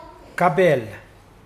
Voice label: "skillful", Mokilese